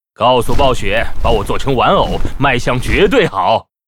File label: pissed; Wind serpent